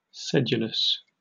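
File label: "sedulous", British English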